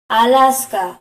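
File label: alaska, Spanish; gcompris-data; geography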